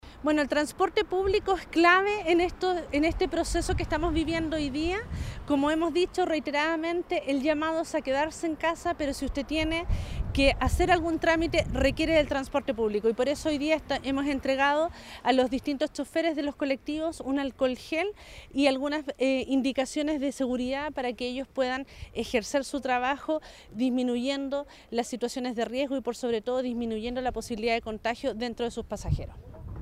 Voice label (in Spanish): Cuña; Gobernadora